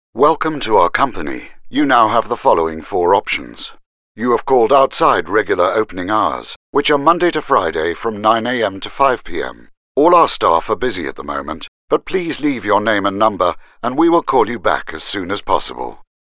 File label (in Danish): eng mand formel